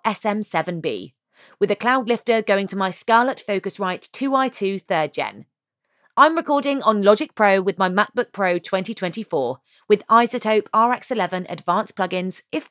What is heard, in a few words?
HOME STUDIO SAMPLE, Relatable, Youthful, Energetic, Warm, Conversational, Authentic